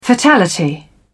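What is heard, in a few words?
"fatality", British English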